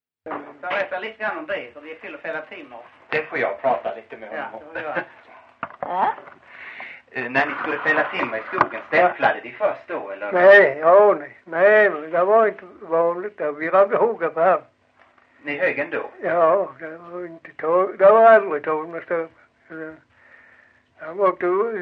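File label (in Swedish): Farstorp Skåne, dialekter; Folkminnen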